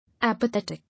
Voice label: "Apathetic", English

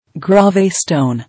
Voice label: "Grave stone", English

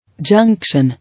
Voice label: "Junction", English

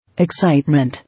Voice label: "Excitement", English

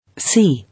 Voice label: "See", English

